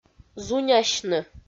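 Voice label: "зунясьны", Komi-Zyrian, female; audio dict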